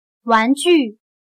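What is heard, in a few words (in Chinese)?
"玩具", Chinese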